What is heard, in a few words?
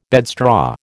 "bedstraw", American